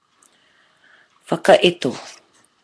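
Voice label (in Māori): "whakaeto", Māori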